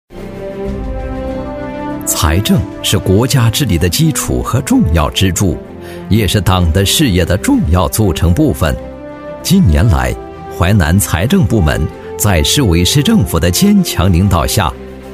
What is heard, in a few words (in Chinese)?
男 政府专题 大气成熟; 历史感强; 大气浑厚